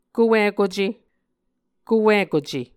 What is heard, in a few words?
"kowikuce", Muscogee; Mvskoke; SIL International